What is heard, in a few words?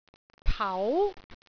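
"pau2", Cantonese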